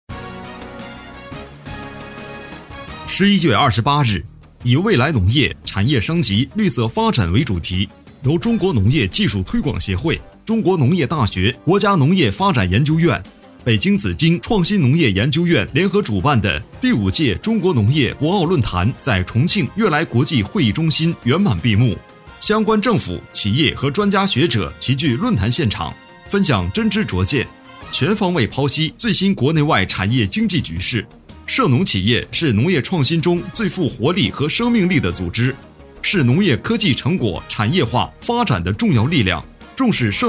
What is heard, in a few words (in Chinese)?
新闻